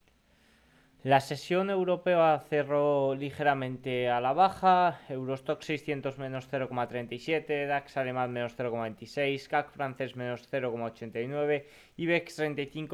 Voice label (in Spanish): radio; noticias bolsa; los indices a la espera de resultados